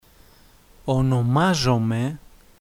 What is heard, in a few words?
my name is, Greek; Ονομάζομαι...; Onomázome…